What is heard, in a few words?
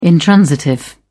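"intransitive", English, uk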